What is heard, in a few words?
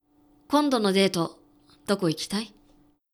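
male; 男性 「今度のデート、どこ行きたい？」; クール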